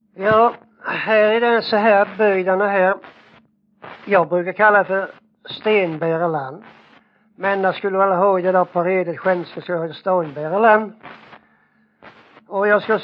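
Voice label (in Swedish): Jämshög Blekinge, dialekter; Folkminnen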